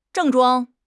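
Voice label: "zheng4 zhuang1", Chinese; 汉语口语新时代-初级下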